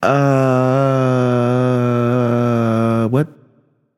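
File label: "seriously", male; baronsamedi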